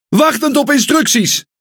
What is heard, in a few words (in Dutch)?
Speech Pilots Fighter, Languages NL; World Of Warships